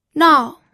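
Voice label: "nao", fourth tone, pinyin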